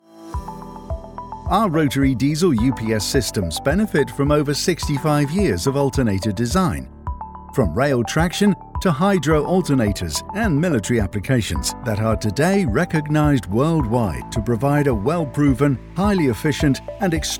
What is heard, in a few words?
english british corporate videos; British voiceover Artist based in Spain; natural accent